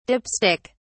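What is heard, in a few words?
"dip stick", British English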